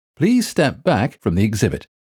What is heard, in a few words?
British Accent Please Step Back; museum alarm options